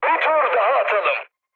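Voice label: Turkish bomb failure, mood high, speech; War Thunder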